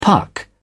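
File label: "puck", British English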